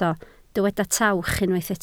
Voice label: "tawch", Welsh